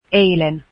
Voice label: yesterday, finnish

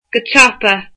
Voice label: quickly, irish

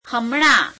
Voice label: gujarati now; હમણાં; hemeṇeām

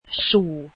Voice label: mouth, Finnish

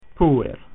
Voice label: "boy", Latin

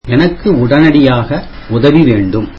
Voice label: tamil I need help immediately

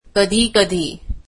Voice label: marathi sometimes

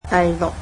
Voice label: "sixth", cantonese

